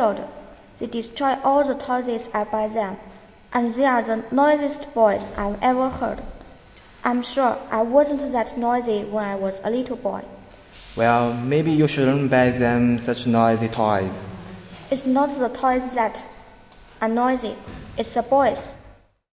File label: Noise, pronunciation